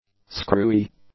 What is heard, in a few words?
"screwy", English; adjectives